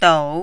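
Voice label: "dou", third tone, Mandarin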